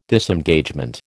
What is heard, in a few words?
"Disengagement", American